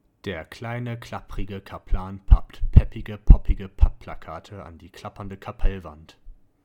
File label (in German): Kardioid 15cm